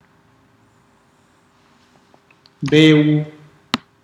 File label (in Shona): seed, Ndau